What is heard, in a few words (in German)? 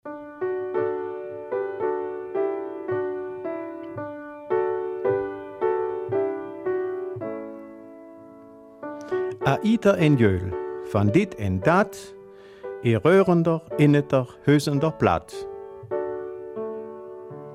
Raerener Mundart; Rückblick auf den Mundart- und Heimatabend